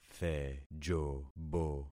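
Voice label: "thejobo", concatenated syllables; Affixing experiment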